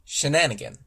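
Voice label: "shenanigan", US English; pronunciations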